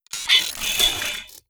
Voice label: robot plantoid greetings; Stellaris; synthetic dawn